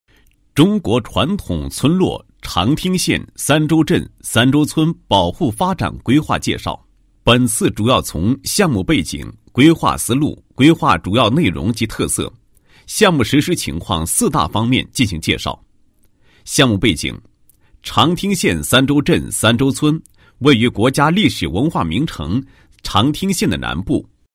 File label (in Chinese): 男 解说词; 中国传统村落保护发展规划介绍